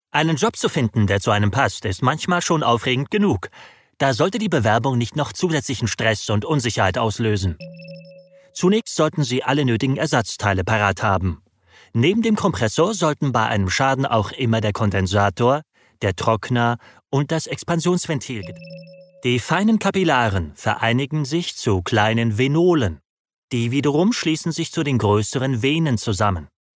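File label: German, male, narration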